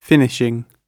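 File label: "finishing", British English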